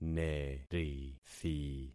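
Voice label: "nerithi", concatenated syllables; Affixing experiment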